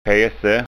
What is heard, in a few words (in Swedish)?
"pese", skanska ord